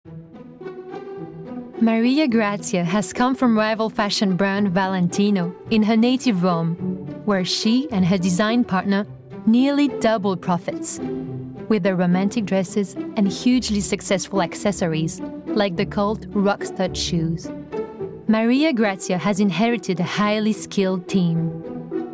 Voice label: english doc

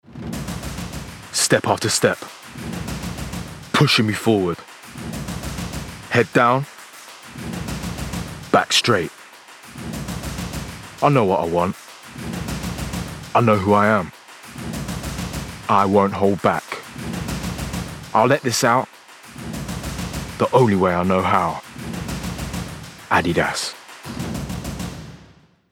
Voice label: VO Reel; Adidas

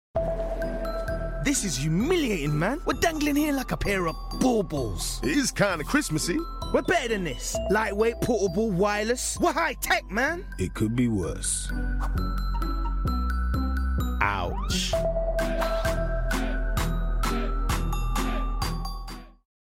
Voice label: character

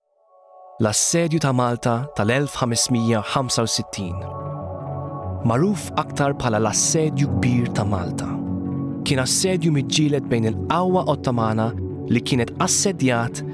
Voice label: Maltese Language

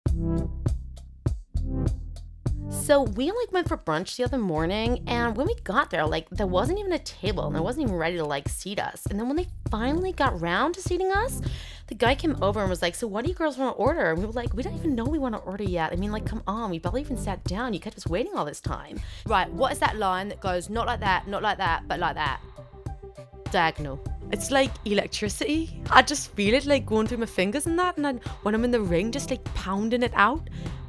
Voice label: Character reel

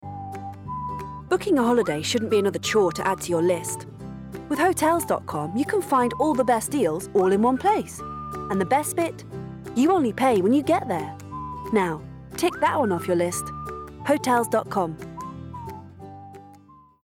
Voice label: VO Reel Hotels

